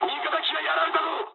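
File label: Japanese aircraft destroyed mood high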